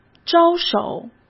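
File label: "招手", Mandarin Chinese; hsk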